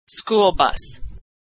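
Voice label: "school bus", American English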